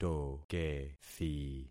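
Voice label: "tokethi", concatenated syllables; Affixing experiment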